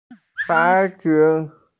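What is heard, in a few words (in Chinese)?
"bai2 yun2" Mandarin Chinese, female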